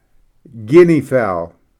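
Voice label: "guinea fowl", English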